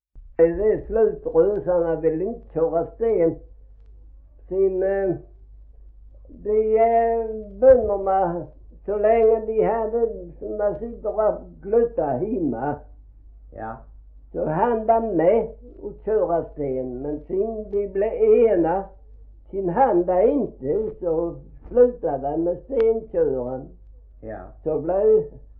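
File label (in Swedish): Gråmanstorp Skåne, Svenska sagor dialekter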